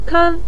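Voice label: "ken" neutral tone, Mandarin Chinese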